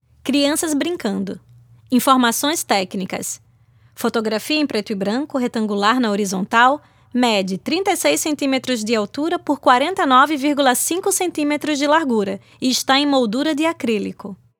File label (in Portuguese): audiodescricao; ExpoFotoclubismoPocos; Criancas brincando